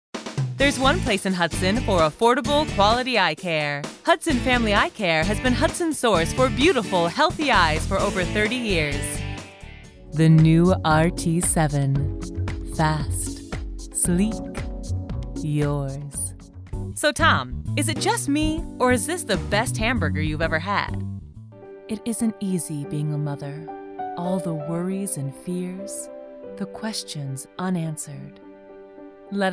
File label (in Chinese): 外籍 英 女 宣传广告合辑